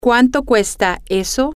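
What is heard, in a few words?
how much is that, spanish, female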